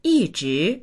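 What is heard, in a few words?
"yi4zhi2", Mandarin Chinese; 一直; ずっと～、まっすぐ～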